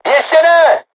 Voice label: voice message art reloading, crew tanks czech

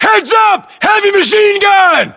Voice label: US threat mg, battlechatter, english

